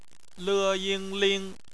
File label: "ling", Chinese; phonetics